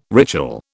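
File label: "ritual", British English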